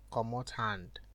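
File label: "comot hand", Nigerian Pidgin, male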